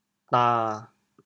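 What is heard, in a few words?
"dan7", Teochew, chaoyang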